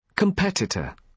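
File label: "competitor", British English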